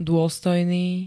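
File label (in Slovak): "dôstojný", Slovak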